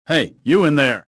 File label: suspicious; rebel